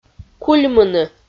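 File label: "кульмыны", Komi, female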